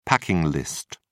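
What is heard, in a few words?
"packing list", British English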